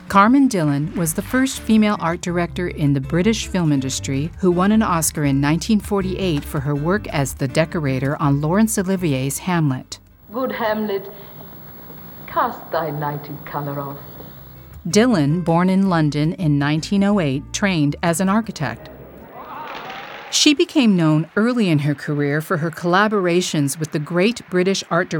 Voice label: Narration; ADG Lifetime Achievment Award